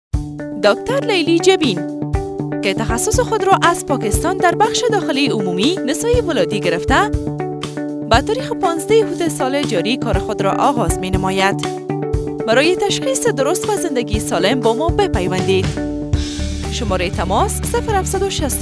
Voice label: pashto female

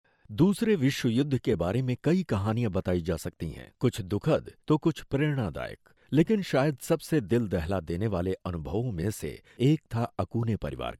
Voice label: hindi male

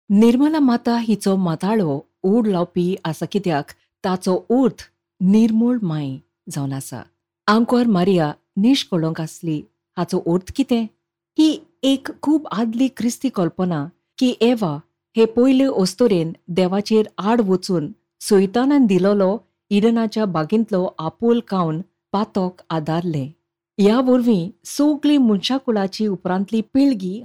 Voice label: konkani female

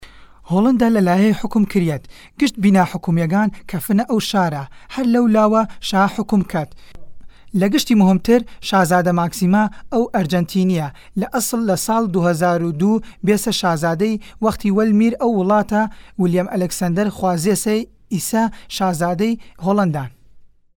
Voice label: Kurdish Faili Male

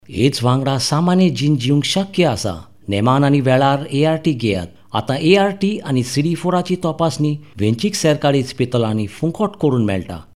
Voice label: konkani male

